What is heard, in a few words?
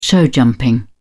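"showjumping", British English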